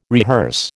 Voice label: "rehearse", American